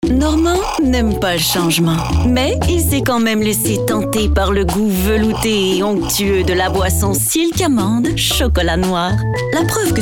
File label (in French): sobre posée amicale avec sourire, Sympathique - humoristique - Québécois naturel; Silk; Danone